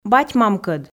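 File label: "бать-мамкӧд", Komi, female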